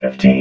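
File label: "fifteen", robot voice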